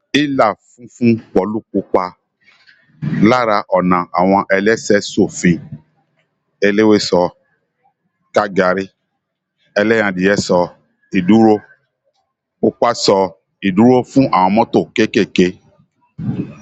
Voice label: YORUBA